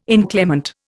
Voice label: "inclement", Indian

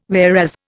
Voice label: "whereas", Indian

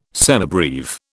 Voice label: "semibreve", British

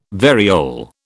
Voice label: "variole", British

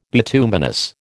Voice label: "bituminous", American

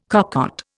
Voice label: "cocotte", Indian

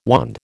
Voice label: "wand", American

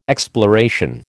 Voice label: "exploration", American

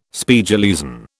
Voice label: "Spiegeleisen", British

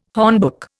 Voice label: "hornbook", Indian

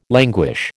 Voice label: "languish", American

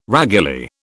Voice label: "raguly", British

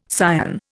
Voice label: "cyan", Indian

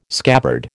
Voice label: "scabbard", American

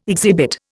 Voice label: "exhibit", Indian

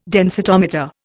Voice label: "densitometer", Indian

